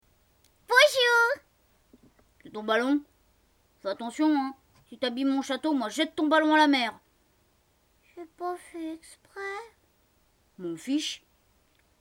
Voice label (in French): voix enfants